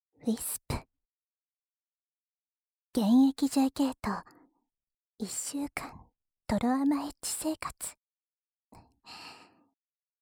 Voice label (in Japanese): タイトルコ－ル, ASMR